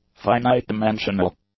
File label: "finite-dimensional", english; adjectives